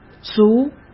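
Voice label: "族", Mandarin Chinese; hsk; chinese-flashcards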